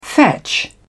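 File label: "fetch", English, British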